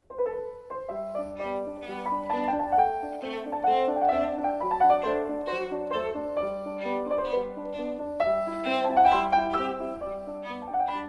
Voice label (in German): Hörbuch; pleyel